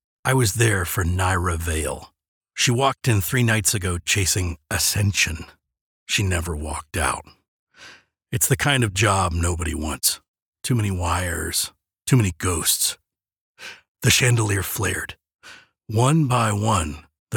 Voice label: Character Narration

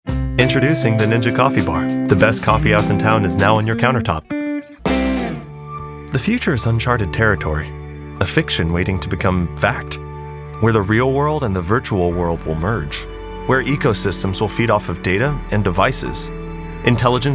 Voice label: Commercial Demo

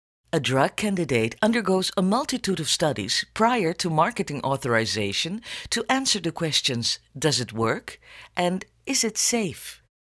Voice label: international English

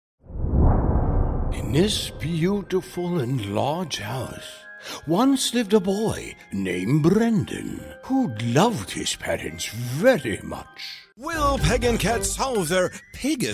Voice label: Character

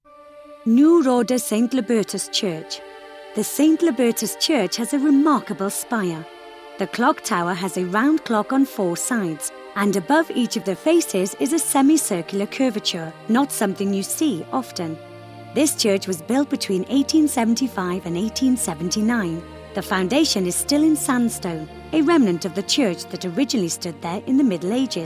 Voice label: narration

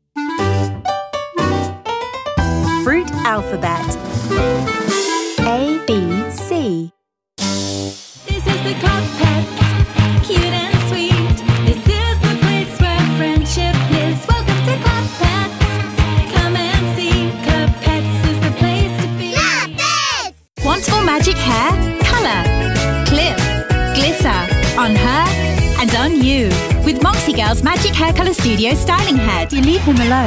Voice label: Kids Commercial and Jingles

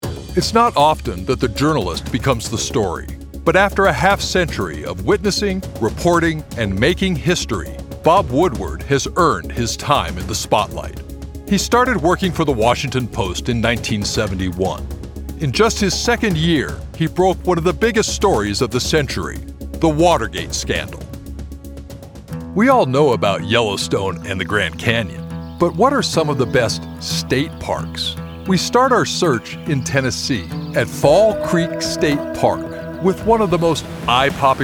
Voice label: Narration Demo

